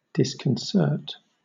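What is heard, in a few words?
"disconcert", British English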